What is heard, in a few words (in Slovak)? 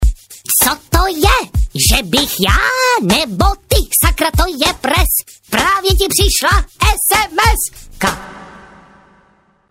sms hlásky cz